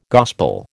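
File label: "gospel", American English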